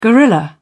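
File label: "guerrilla", British English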